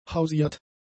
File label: "hausiert", German; adjectives